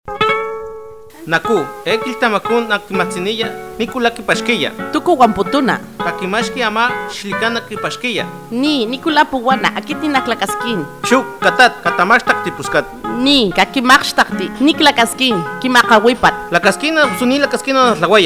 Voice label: Lengua Totonaco; FI Papantla; Violencia contra las mujeres

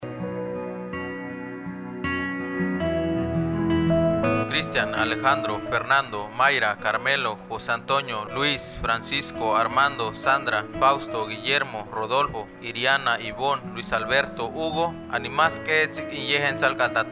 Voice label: Lengua Tének; FI Tantoyuca

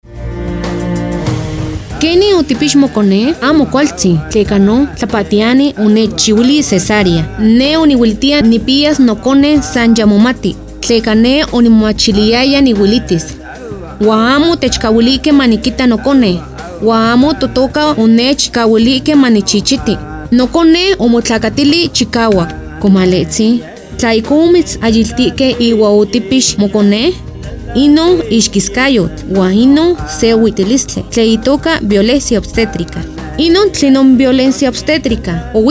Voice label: Lengua Nahualt; FI Orizaba; Violencia Obstetrica

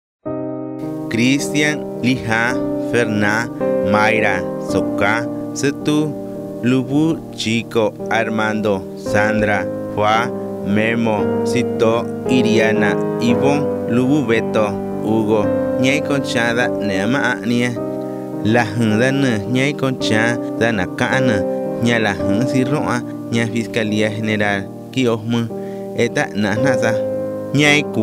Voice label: Chinanteco; FI Uxpanapa

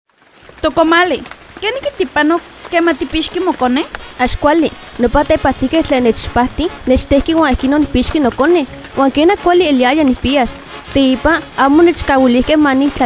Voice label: Lengua Nahuatl Huasteca; FI Chicontepec; Violencia Obstetrica

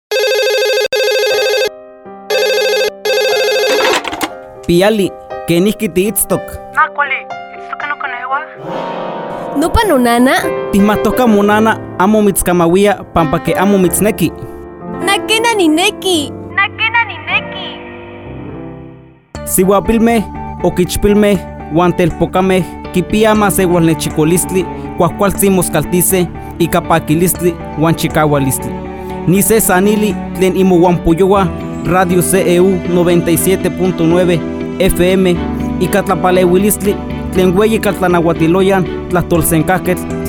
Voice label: Lengua Nahuatl Huasteca; FI Chicontepec

